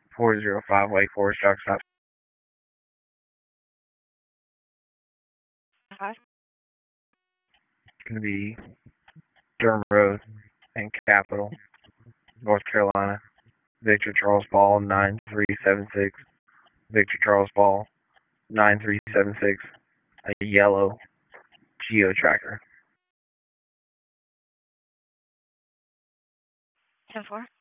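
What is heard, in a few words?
Police Dispatch; Wake Forest; p25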